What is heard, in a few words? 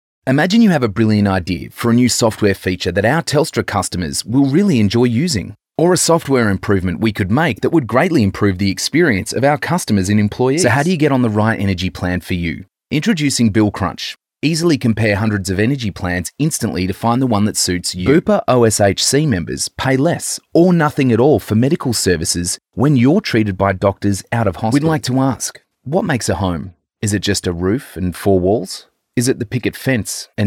Natural